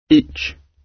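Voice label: "each", British English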